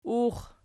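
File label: "ux" nominative, Archi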